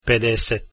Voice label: "50", Croatian

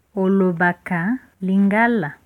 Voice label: do you speak, Lingala; Olobaka lingala?; Ee, nayebi lingala moke

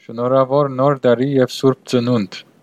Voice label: christmas, armenian western

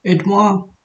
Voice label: help, mauritian creole

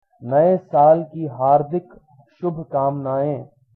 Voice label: new year, hindi; नये साल की हार्दिक शुभकामनायें; naye sāl kī hārdik śubhkāmnayeṅ

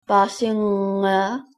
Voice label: no understand, Greenlandic

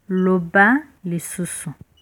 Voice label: say again, lingala

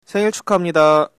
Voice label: birthday, Korean

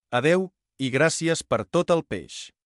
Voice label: so long, catalan

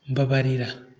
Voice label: sorry, kinyarwanda

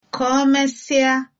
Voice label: goodbye, igbo

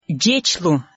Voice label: goodbye udmurt

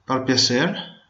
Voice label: please, venetian

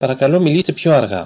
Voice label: speak more slowly, greek

